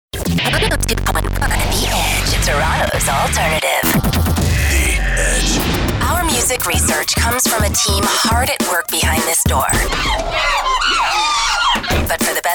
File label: women radio imaging